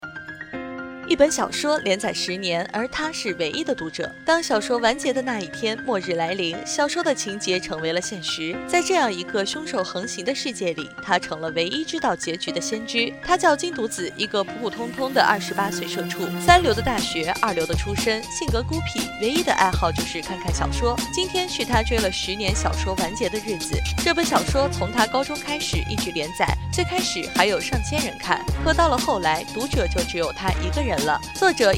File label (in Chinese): 电影 解说 配音